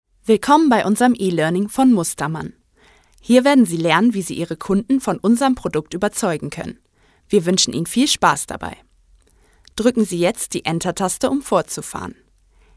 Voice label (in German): elearning deutsch; sprecher